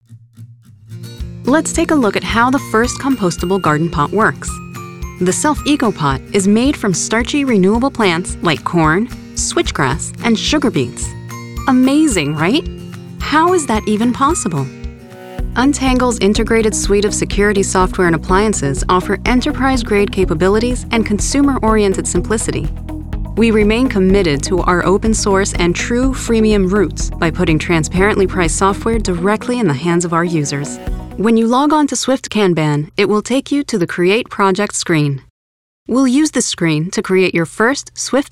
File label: women elearning